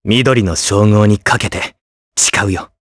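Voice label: voice, Japanese; Evan; kingsraid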